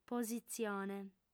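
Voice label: "positzione", Sardinian, female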